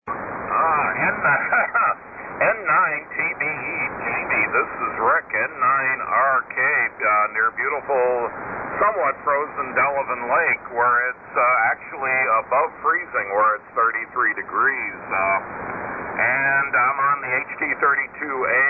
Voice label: Hallicrafters HT-32A - SX-101 D-104 Mic & Dentron Clipperton Amp; Delavan,Wi.